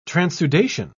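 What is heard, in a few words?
"transudation", British English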